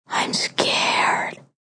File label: Im Scared Whisper, Male, child; Whispering Sound Effects